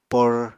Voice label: "por", Apatani